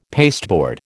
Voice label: "pasteboard", American